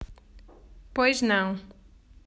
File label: Pois não, Portuguese